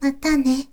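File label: girl, "matane"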